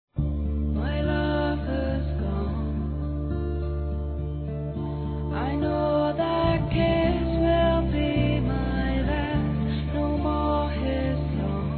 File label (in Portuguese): GERAL F; Telemensagens 2017